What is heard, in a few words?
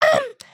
volunteer, emotes